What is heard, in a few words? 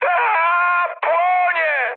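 on fire, mood med, Polish speech; War Thunder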